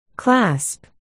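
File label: "clasp", spoken word, articulation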